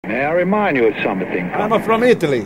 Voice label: italian